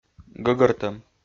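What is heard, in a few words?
"гӧгӧртӧм", Komi, male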